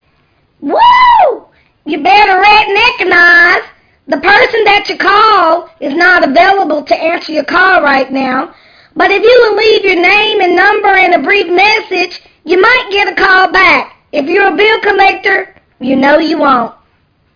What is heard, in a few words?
redneck voice message